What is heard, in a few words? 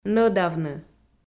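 "нӧдавны" Komi-Zyrian, female; kpv audio dict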